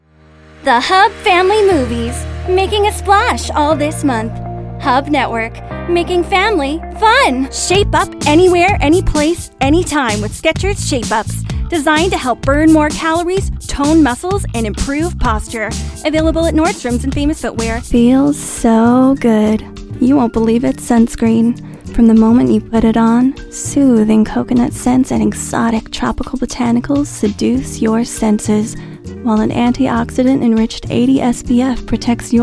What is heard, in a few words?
Commercial VO